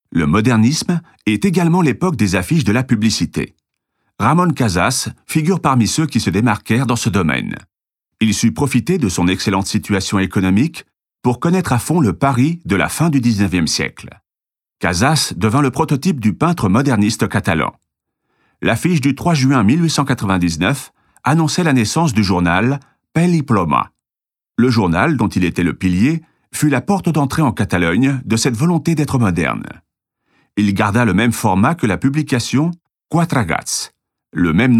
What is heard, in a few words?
audioguia french